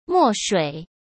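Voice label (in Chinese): "墨水", Mandarin; chinese flashcards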